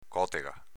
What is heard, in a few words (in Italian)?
"cotega", dialetto veneto